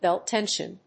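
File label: "BELT TENSION", English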